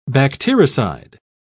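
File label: "bactericide", British English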